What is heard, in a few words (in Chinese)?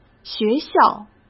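"学校", Mandarin Chinese; chinese flashcards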